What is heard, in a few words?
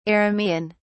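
"aramaean", British English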